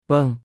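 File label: "បឹង", Khmer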